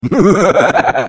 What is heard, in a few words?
Defective Turret defect laugh, ru; sp sabotage factory